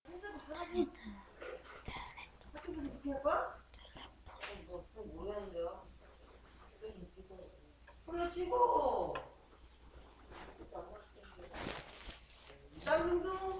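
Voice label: "telephone", spoken word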